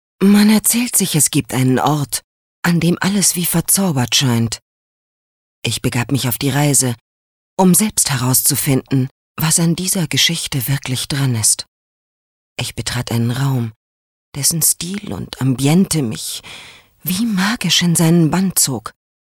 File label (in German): Schauspiel Sanft